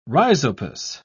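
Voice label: "rhizopus", British English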